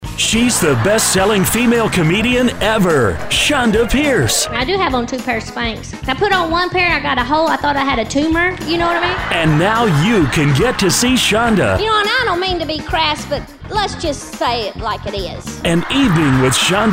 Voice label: Sample Radio Spot